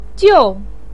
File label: "jiu4", Chinese pinyin voice